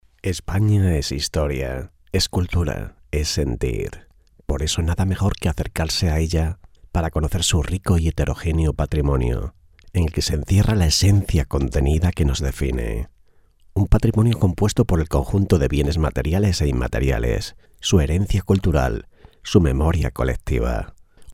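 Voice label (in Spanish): male locutor spanish voiceover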